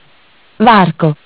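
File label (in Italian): "Varco", Italian; Bentel; BLE320; MESSAGGI VOCALI; Audio BGS220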